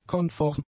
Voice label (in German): "konform", German; adjectives